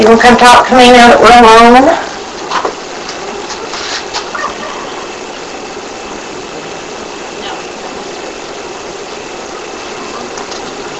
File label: Living Room Unexplained Female voice low whisper (No) AMPLIFIED